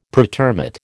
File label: "pretermit", American